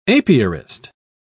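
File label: "apiarist", British English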